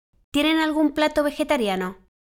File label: conversation